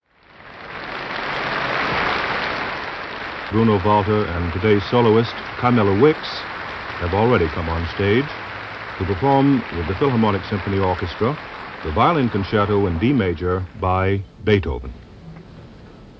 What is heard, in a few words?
Opening Announcement